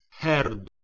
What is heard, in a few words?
"heord", Anglo Saxon